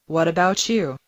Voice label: Mandarin Conversation

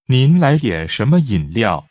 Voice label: Mandarin Conversation

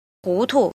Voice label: "jin", Chinese

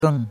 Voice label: "geng4", chinois; Cours de Chinois

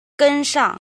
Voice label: "jin", Chinese

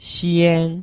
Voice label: "xian1", Chinese

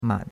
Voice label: "man3", chinois; Cours de Chinois

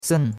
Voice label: "sen4", chinois; Cours de Chinois

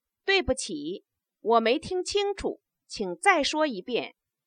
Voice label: stative, Chinese

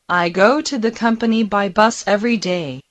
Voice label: Mandarin Conversation

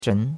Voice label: "zhen2", chinois; Cours de Chinois

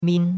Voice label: "min1", chinois; Cours-de-Chinois

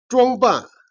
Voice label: "jian", Chinese

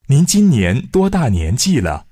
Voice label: Mandarin Conversation

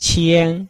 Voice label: "qian1", chinois

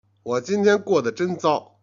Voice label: "jian", Chinese

